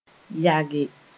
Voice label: "yage", Moore